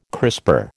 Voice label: "crisper", American

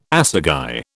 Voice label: "assagai", British

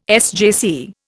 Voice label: "SJC", Indian

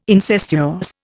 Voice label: "incestuous", Indian

